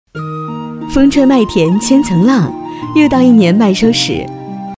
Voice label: 女, 素人; 诺普信

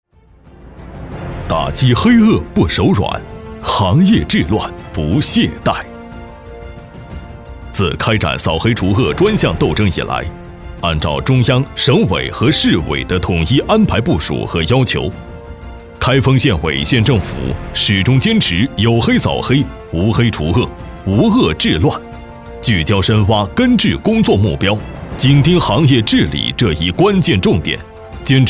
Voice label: 男 政府专题片

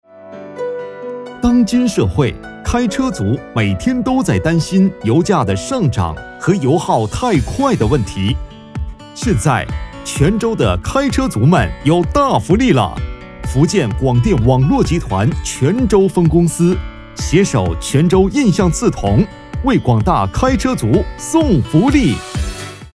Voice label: C男, 宣传片; 广电网络集团送福利样音

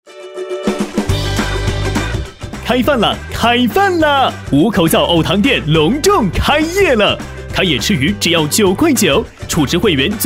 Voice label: 男 促销 年轻 五口灶

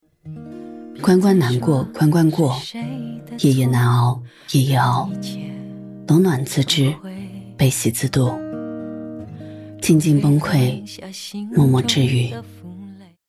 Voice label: 女, 素人短视频 情感; 抖音情感冷暖自知

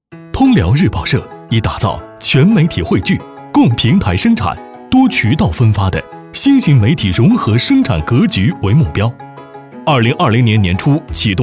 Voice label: 男; 飞碟说MG

